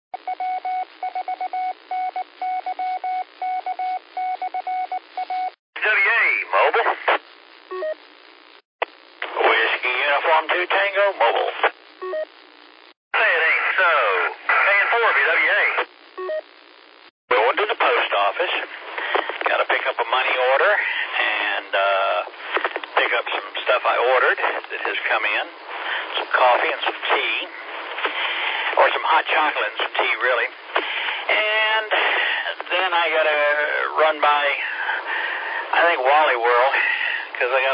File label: qso rec simplex logic